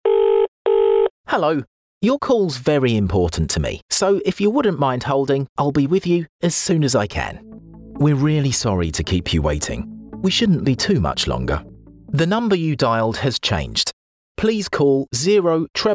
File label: english british ivr; based in Kent in the South-East